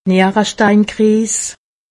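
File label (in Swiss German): "nieresteinkriis", Haut Rhin; medecine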